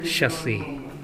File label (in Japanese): "shasue", minami yamagata